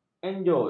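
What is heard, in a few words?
"enjut", Malay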